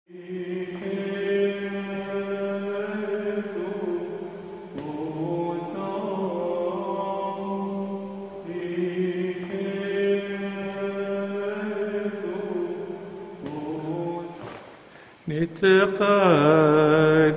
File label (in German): Vaterunser aramäisch